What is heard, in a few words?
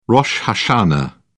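"rosh hashanah", British English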